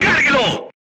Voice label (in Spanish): voice message load, Spanish